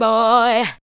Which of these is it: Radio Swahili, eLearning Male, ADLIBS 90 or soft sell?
ADLIBS 90